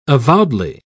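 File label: "avowedly", British English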